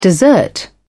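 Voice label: "dessert", British English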